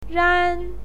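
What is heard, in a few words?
"ran1", Mandarin Chinese pinyin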